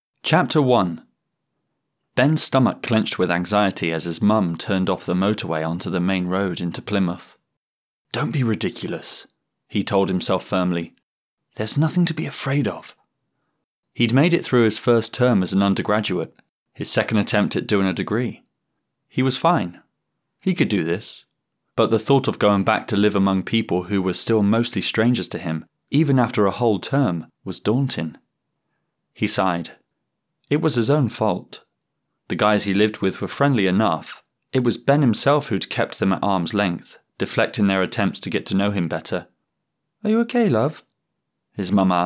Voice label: audiobook sample; starting from scratch by jay northcote